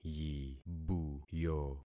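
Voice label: "yibuyo", concatenated syllables; Affixing experiment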